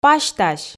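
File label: "пасьтась", Komi, female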